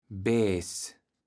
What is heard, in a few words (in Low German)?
"Bees", kaevels platt